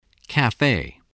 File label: "cafe", spelling